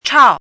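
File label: "chao", Mandarin Chinese, fourth tone; pinyin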